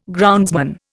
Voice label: "groundsman", Indian